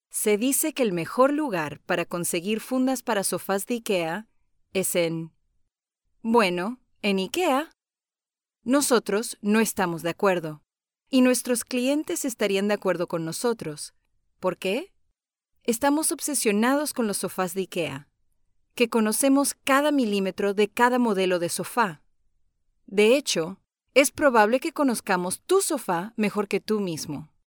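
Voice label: Spanish Latin America female sales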